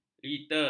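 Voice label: "liter", Malay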